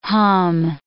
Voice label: "hum", English

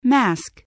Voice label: "mask", spelling voice

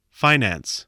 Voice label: "finance", spelling

